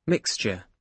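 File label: "mixture", British English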